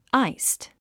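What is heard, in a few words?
"iced", English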